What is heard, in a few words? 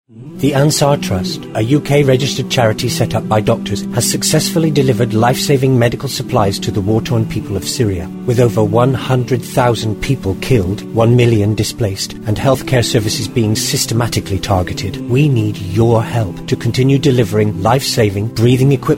Advert; Ansar Trust